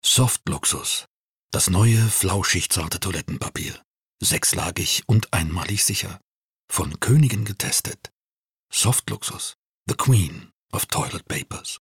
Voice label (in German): off, Tale (Erzählung), German; Mittel plus (35-65); Germany